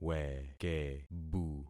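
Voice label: "wekebu", concatenated syllables; Affixing experiment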